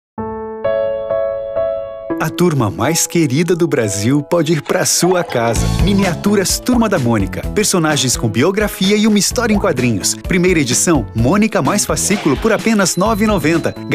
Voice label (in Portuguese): Portuguese Brazil